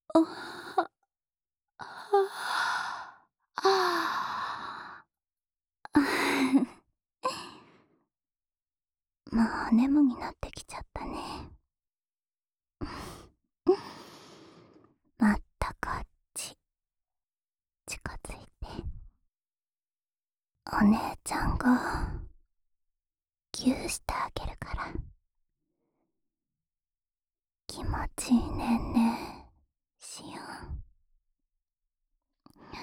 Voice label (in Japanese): しあわせ 添い寝